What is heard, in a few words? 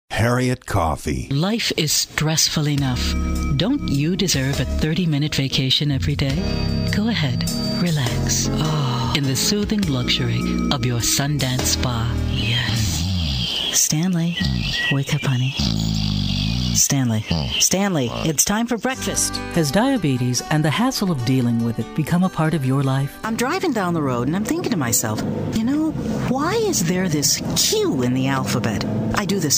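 Commercials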